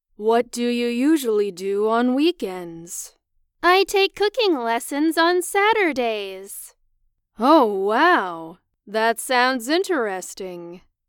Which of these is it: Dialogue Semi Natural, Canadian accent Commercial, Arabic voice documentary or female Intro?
Dialogue Semi Natural